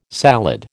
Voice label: "salad", American